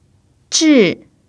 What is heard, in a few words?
"zhi4", Chinese